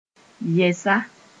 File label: "yɛsa", Mooré; again